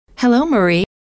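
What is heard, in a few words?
"hello", female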